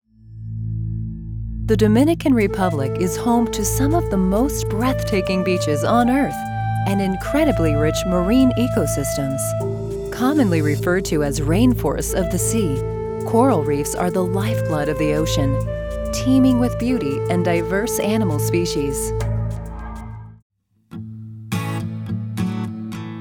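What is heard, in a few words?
English US, Kommentar, Neutral; Fremdtake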